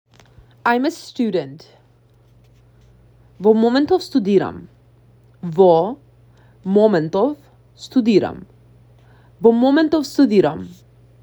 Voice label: I am a student, macedonian; the talking macedonian phrasebook

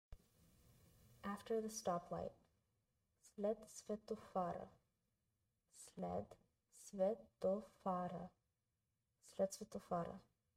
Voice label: After the stoplight, bulgarian phrasebook; the talking bulgarian phrasebook

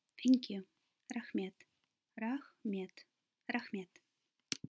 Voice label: Thank you, kazakh

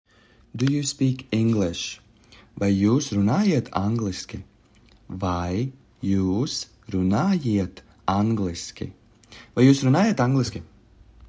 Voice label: Do you speak English, Latvian; the talking latvian phrasebook

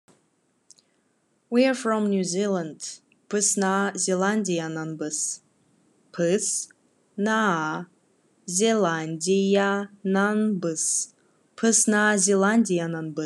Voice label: Khakas We are from New Zeland

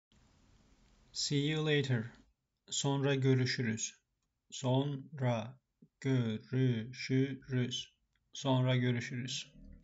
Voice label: see you later, turkish; the talking turkish phrasebook

